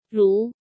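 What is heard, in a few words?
"Rú", Chinese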